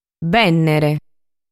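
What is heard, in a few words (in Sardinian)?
"Bènnere", Sardinian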